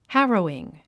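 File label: "harrowing", English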